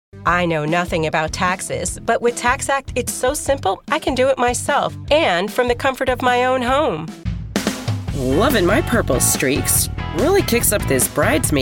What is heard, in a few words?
Commercial